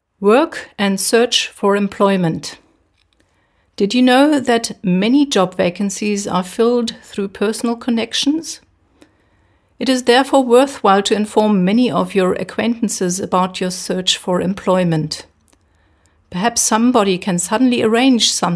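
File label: English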